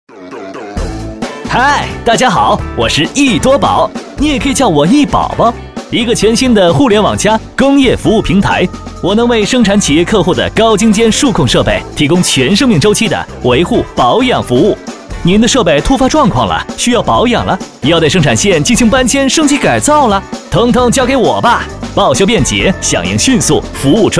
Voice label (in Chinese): 男国 广告 互联网 欢快; 翼多宝服务